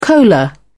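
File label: "cola", British English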